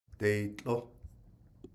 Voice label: weave it 3rd person plural, Navajo